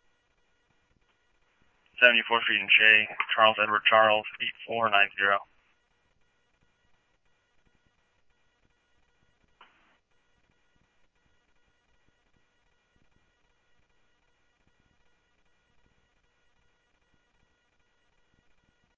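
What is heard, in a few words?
police department, scanner audio trunk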